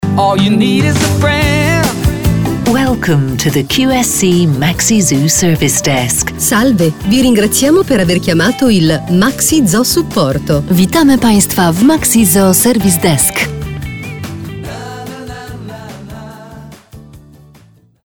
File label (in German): telefonansagen; maxi zoo